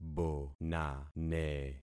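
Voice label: "bonane", concatenated syllables; Affixing experiment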